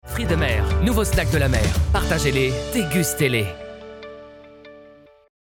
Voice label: tv advertising, sprecher; fri de mer